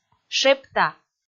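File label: "шепта", Komi, female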